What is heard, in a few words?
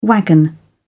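"wagon", British English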